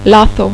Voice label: "lazo", Spanish